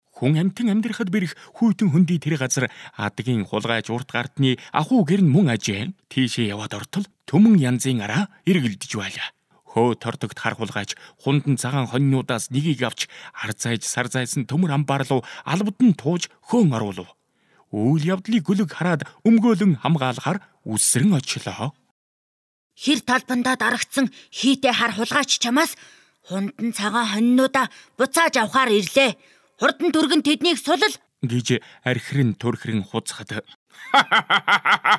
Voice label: Dubbing